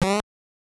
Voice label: Referee Announcing Winner; Mike Tyson's Punch-Out!!